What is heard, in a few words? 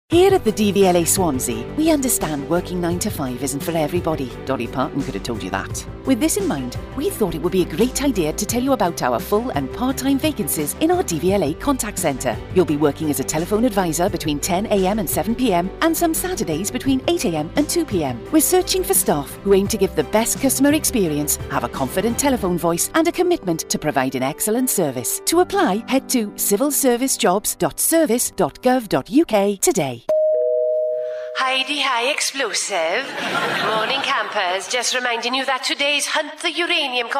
welsh accent